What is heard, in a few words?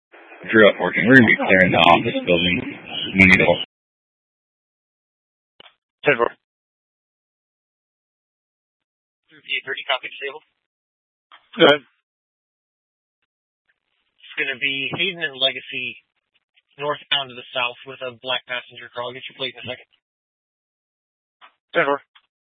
Scottsdale Police, Simulcast